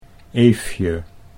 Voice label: "Eefje", Dutch

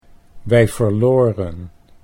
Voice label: wij verloren, Dutch

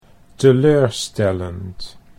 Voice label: "teleurstellend", Dutch

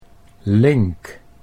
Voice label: "link", Dutch

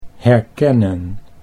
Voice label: "herkennen", Dutch

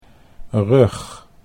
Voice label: "rug", Dutch

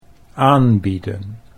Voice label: "aanbieden", Dutch

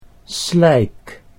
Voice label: "slijk", Dutch

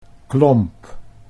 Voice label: "klomp", Dutch

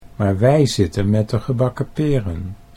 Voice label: maar wij zitten met de, Dutch

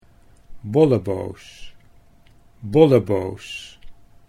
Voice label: "bolleboos", Dutch

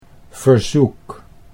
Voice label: "verzoek", Dutch

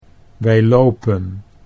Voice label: wij lopen, Dutch

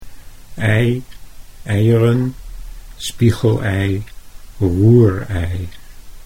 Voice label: "ei eieren", Dutch

